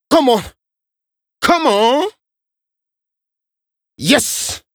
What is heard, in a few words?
Rap Do It Adlip G, vocal